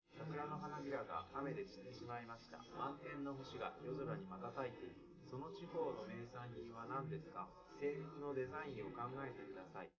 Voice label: male, live rec; SiSEC11; underdetermined